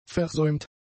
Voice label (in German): "versäumt", german; adjectives